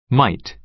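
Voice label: "might", American English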